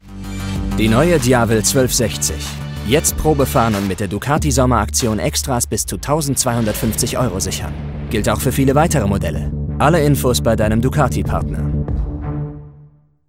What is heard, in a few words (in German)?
werbung; ducati diavel 1260